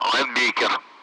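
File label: Speech Russian; Allied Flight; AirDefence; Linebacker; DCS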